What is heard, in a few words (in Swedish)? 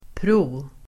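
"pro-", Swedish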